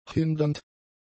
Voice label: "hindernd", German; adjectives